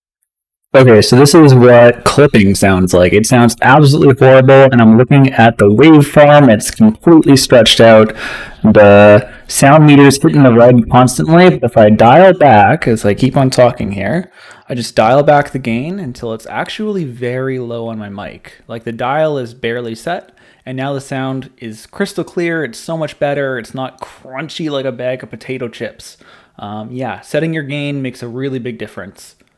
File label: mic sample gain